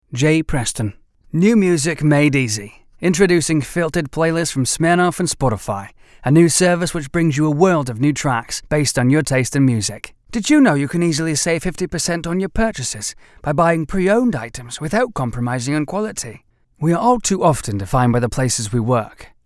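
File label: British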